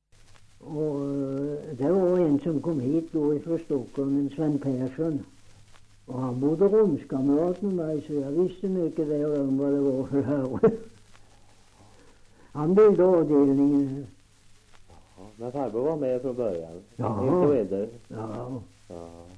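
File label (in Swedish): Oppeby Åtvid Östergötland, dialekter, Svenska sagor; Folkminnen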